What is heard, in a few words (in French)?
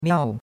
"miao4", chinois; Cours de Chinois